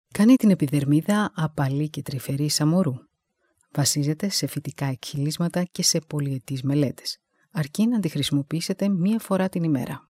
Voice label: female greek voice over sales